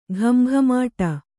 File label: "ಘಮಘಮಾಟ", Kannada; ghama ghamāṭa; noun